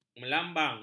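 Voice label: "melambang", Malay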